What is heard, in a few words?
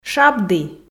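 "шабді", Komi, female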